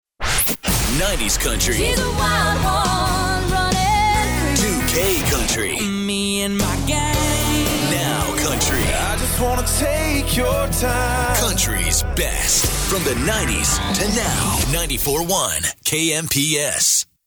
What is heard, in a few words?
Music Imaging Promo; KMPS